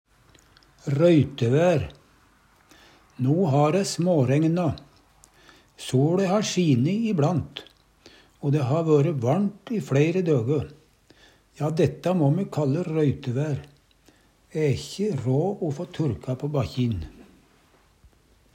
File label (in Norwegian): røytevær, Numedalsmål